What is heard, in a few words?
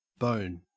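"bone", Australian English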